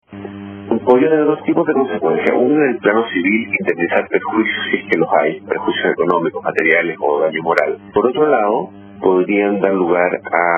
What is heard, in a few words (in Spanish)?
cuña